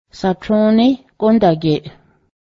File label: get dressed right away, Cayuga; satrǫ:níh gǫdágyeˀ